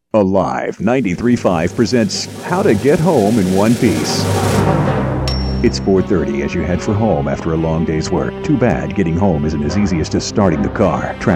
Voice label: Afternoon Drive Promo